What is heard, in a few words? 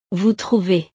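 "vous trouvez", French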